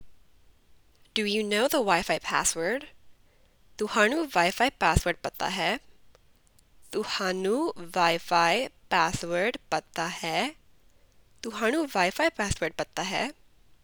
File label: Punjabi Do you know the Wi-Fi password